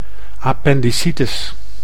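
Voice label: "appendicitis", Dutch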